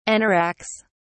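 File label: "anoraks", British English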